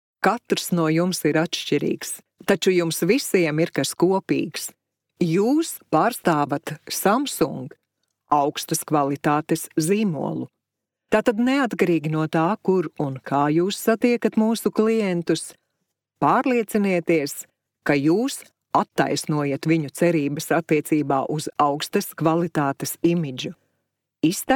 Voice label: female latvian voice over sales